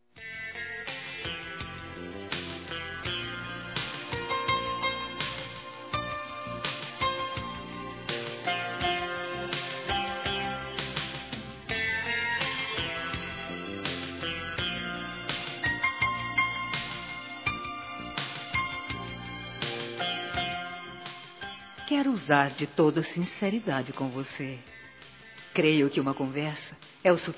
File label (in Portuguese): preciso ficar de bem f, Telemensagens, fem; Desculpas; Telemensagens 2017